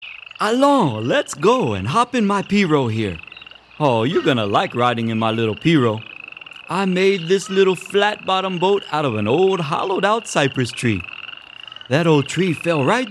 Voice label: Narration